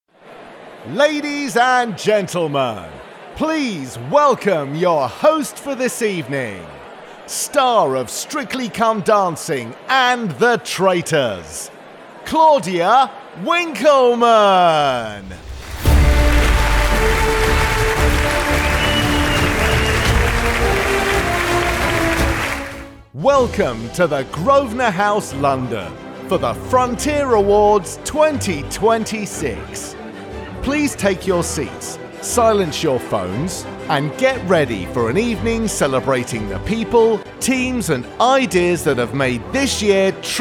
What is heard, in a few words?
Voice Of God; voiceover